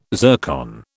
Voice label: "zircon", British